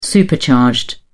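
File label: "supercharged", English, British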